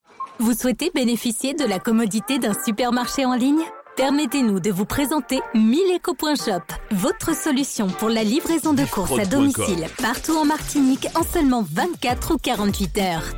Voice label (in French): Spot, publicite; Esperance FM; Milleco Shop